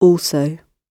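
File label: "also", British English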